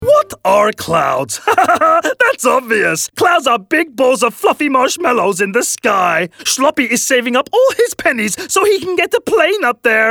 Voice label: Funny, Character, Playful; characters animation; Shloppy